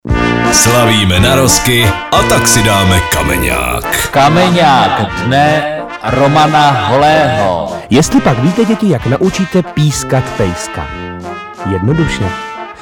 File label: liner; evropa2; narozky e2